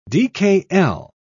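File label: "dkl", British English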